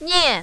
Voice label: "nian4", mandarin, female